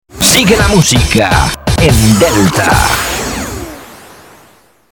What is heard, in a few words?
jingle; delta fm